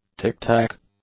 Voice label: "tick-tack", English; nouns